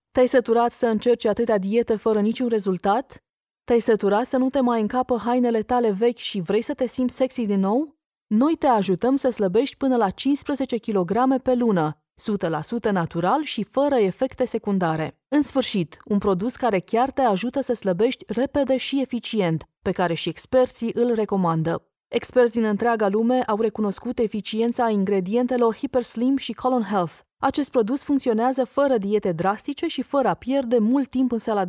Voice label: female romanian voice over sales

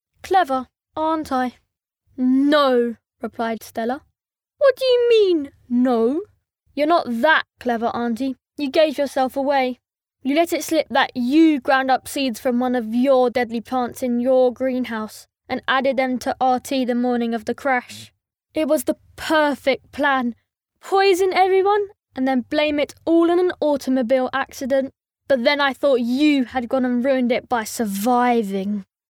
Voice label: boy british voice over character; english british

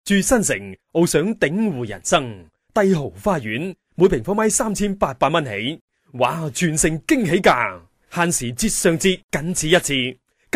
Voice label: male cantonese voice over talent narrative

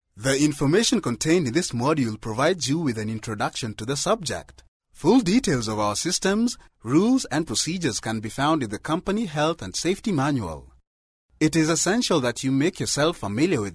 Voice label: male swahili voice over english

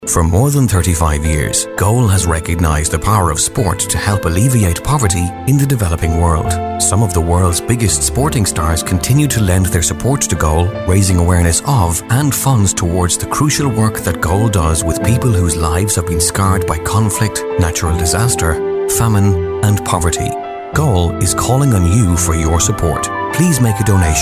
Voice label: male irish voice over talent narrative

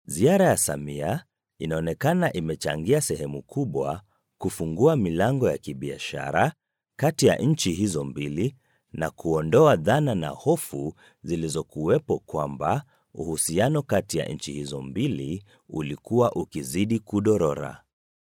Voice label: male swahili voice over narrative

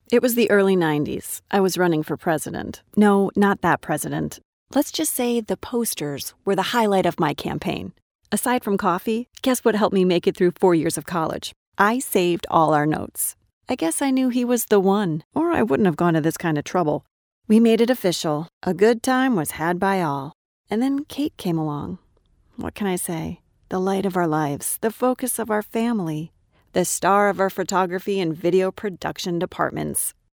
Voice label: female american voice over character; english american

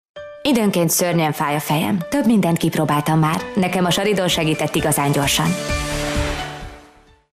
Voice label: female hungarian voice over sales

